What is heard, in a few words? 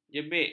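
"jebik", Malay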